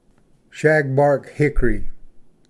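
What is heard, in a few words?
"shagbark hickory", English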